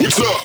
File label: Vocal Phrase Male Whats Up; bass house; fx vocals